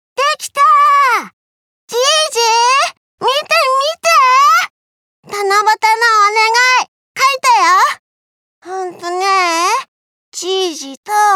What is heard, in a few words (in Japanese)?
少女